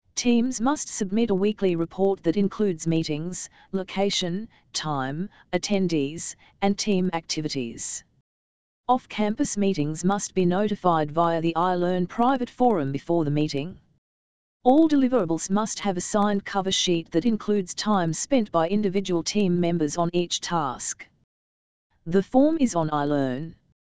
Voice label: dialog